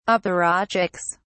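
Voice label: "apparatchiks", British English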